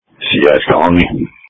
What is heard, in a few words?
Police Tactical Encrypted